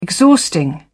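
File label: "exhausting", British English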